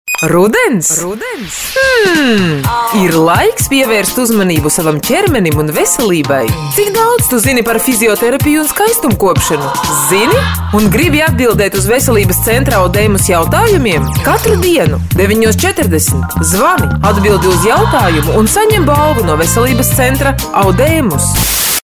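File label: latvian female commercial; voice demos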